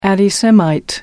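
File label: "anti semite", English, British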